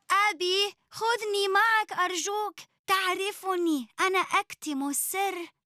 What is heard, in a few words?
Cartoon